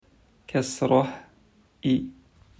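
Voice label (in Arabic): "كسرة", Arabic; quiz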